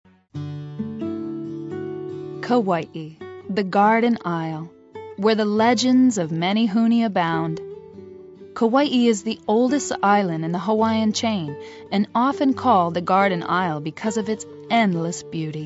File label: narration, english